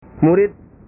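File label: "murid", Indonesian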